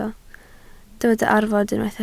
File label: "arfod", Welsh